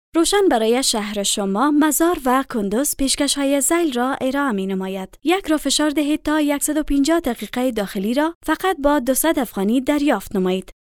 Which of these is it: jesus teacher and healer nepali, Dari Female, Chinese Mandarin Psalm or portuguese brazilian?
Dari Female